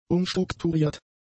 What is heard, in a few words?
"umstrukturiert", German; adjectives